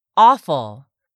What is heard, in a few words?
"AWFUL", english; 3000 words